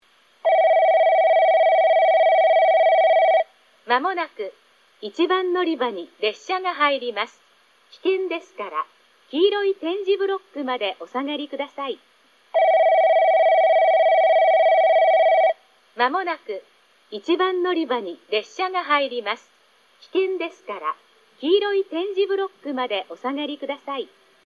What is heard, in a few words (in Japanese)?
1番のりば接近放送 女声 九州カンノ型（武雄温泉Ver.）です。放送は2回入り、女声のみです。; 肥前山口方面; ソノコラムとクリアホーン